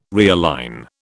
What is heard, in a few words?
"realign", British